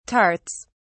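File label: "tarts", British English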